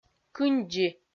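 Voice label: "кындзи", Komi, female; kpv; audio dict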